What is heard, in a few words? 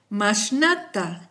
how much, kichwa